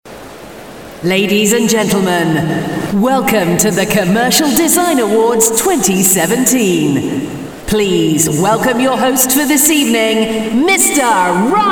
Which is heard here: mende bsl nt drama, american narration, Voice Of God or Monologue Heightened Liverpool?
Voice Of God